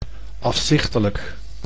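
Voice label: "afzichtelijk", Dutch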